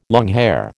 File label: "longhair", American